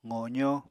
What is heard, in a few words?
"qozó", Apatani